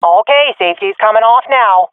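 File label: attacking, swat female; vo; Counter Strike Model Pack; CS:GO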